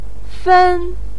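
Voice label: "fen", Mandarin pinyin, tone 1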